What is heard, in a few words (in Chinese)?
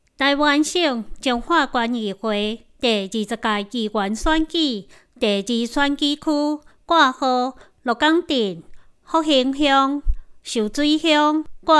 臺語; 彰化縣; 縣議員; 第二選區; 有聲公報